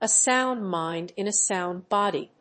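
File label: "a sound mind in a sound body.", English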